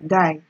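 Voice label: "guy", Nigerian Pidgin, female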